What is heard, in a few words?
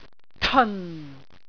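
"teon4", Cantonese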